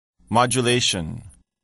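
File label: "modulation", English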